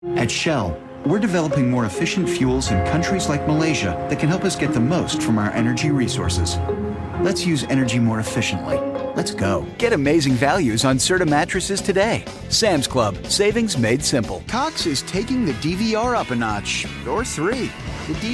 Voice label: commercial